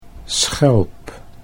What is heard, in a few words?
"schelp", Dutch